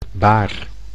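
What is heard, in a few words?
"baar", Dutch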